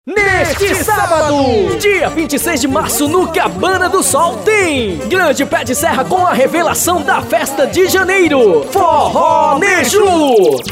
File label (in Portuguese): spot forro